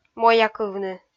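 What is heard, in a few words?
"моякывны" Komi, female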